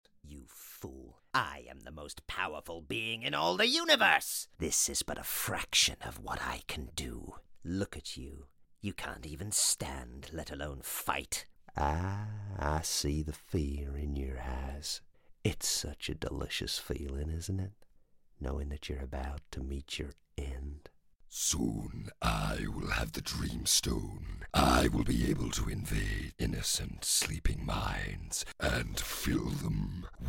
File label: Game Villain